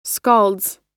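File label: "scalds", American English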